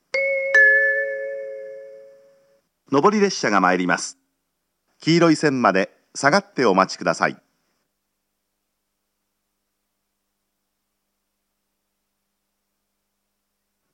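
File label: platform 3 approaching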